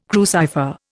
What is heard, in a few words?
"crucifer", Indian